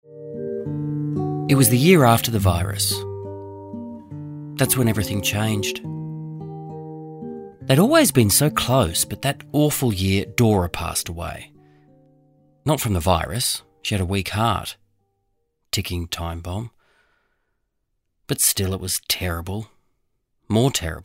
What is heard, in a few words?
Audiobook first-person narrative fiction; The Empty Cage